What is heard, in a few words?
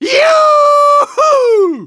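cheers, German; Engineer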